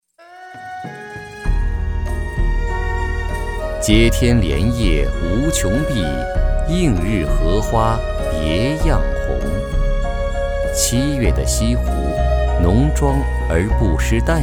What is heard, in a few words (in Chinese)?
男 专题 纪录片; 荷花